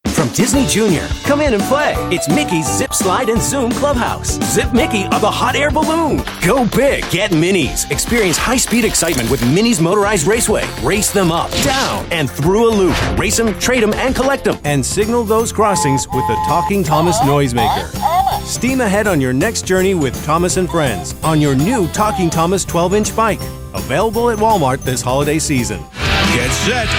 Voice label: Toy Voice Over; Full Range Voice Over Demos